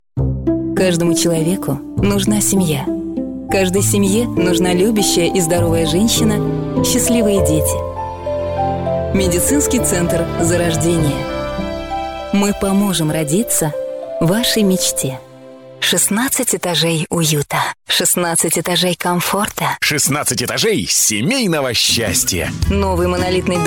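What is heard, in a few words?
female, Russian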